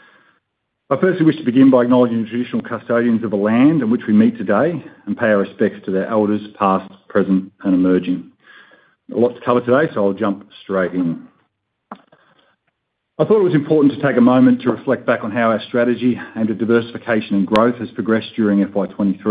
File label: Full Year Results Conference Call; Service Stream; FY23